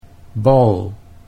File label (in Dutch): "bal", Dutch